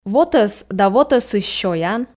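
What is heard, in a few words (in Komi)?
вотӧс да вотӧсысь сёян, Komi, female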